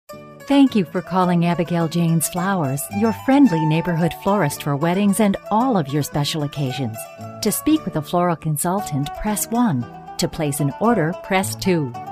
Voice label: female